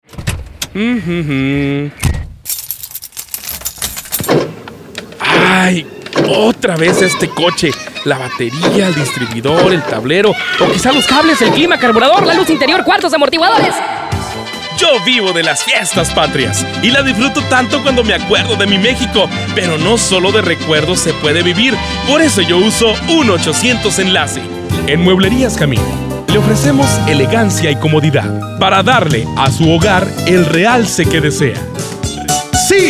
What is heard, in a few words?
spanish males